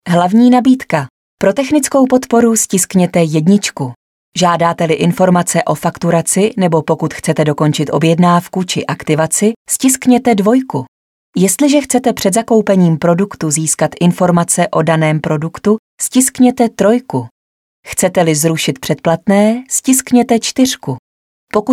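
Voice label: Female Czech documentary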